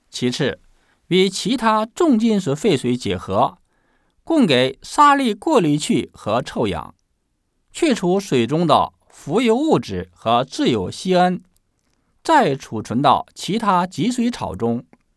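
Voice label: chinese mandarin male character; voice demos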